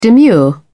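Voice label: "demure", British English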